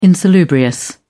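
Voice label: "insalubrious", British English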